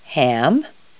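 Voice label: "ham", English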